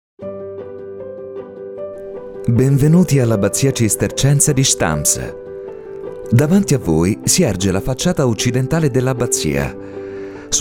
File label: italian audio guides; professional speaker and dubber